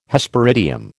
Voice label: "Hesperidium", American